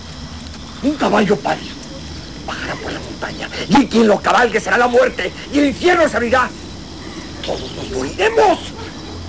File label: sermon; Un caballo ballo, bajará por la montaña y quien lo cabalgue será la muerte y el infierno se abrirá, ¡Todos nos morir